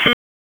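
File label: Raleigh Police North District Tactical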